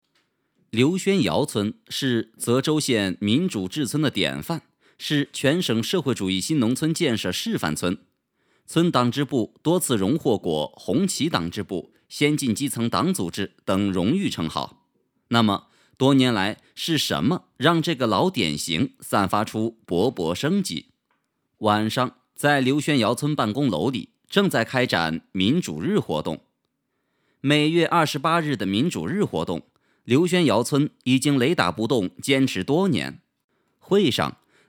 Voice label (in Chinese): 男, 大气; 刘轩窑村; 城市地区